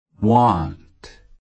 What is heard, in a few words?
"want", USA, slow